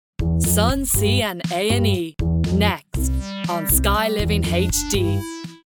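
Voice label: English Advert Irish; GoLocalise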